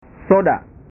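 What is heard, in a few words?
"soda", Indonesian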